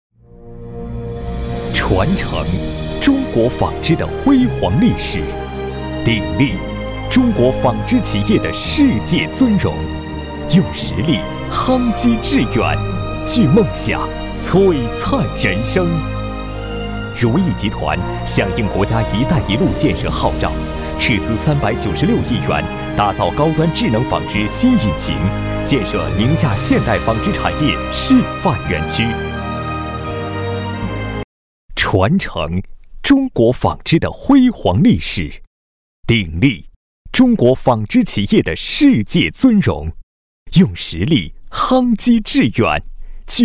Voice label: 男国 专题 企业 大气; 纺织企业

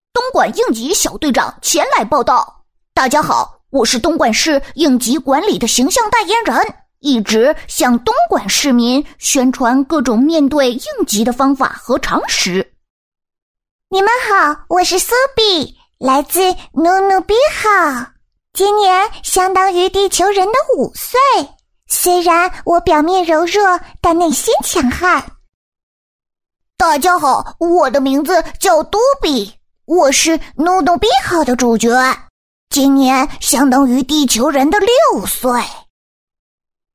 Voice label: 动画 角色 8人物连配

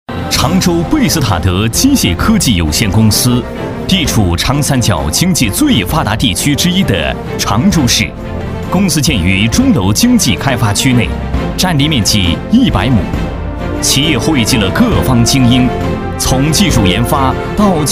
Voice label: 宣传片 企业 大气, 男; 常州贝斯塔德机械科技公司

